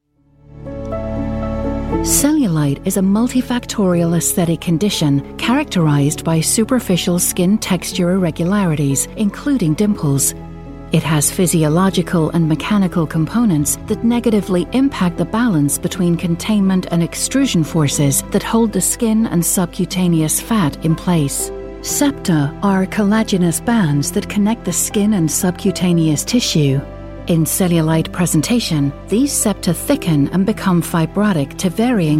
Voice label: transatlantic accent elearning